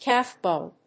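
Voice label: "calf-bone", English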